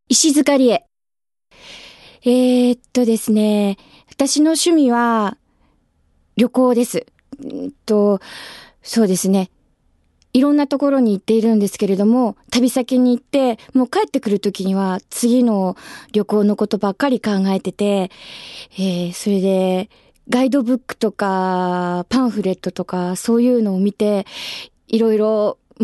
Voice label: free talk, female voice